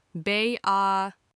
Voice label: sir in turkish

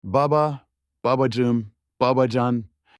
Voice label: dad in turkish

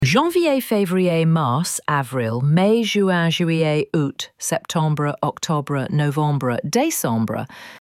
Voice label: month in french

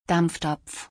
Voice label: Boiler in German

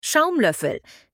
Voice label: skimmer in german

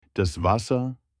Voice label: water in german

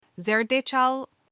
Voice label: turmeric in turkish

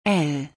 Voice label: The letter L in German

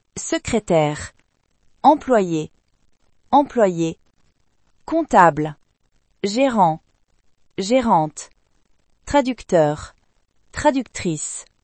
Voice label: job in french

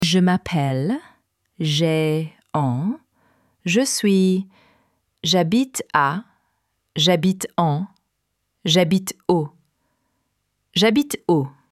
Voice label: i in french

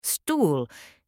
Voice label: chair in german

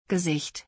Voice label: face in german

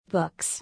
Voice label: "Books", English

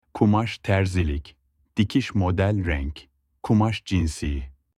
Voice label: fabric in turkish

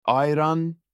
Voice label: ayran in turkish

